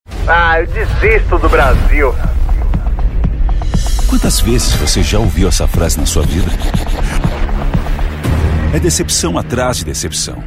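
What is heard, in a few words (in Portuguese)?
Português - Brasileiro, Manifesto, Voz Padrão - Grave, Masculino; NOVO - Manifesto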